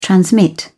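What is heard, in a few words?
"transmit", British English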